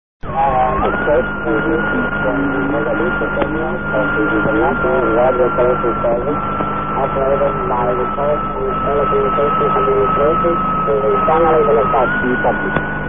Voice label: usb